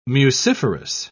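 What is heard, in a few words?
"muciferous", British English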